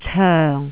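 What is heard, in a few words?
"coeng4", Jyutping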